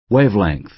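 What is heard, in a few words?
"wavelength", American English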